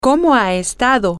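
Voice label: how have you been, spanish, female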